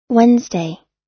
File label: "wednesday", US